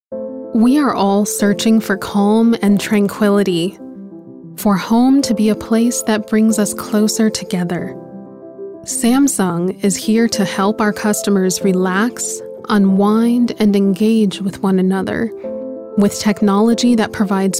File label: Corporate